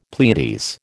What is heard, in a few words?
"Pleiades", American